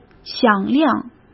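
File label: "响亮", Mandarin Chinese; chinese flashcards; hsk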